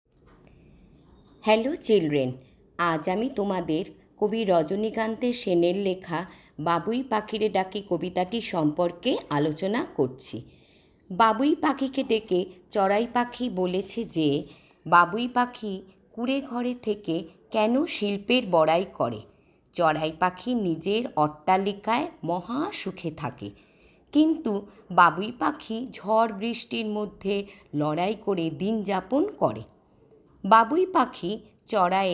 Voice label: bengali